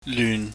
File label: "lyun", Chinese